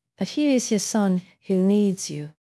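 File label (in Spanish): Voice Acting es F, Spanish, female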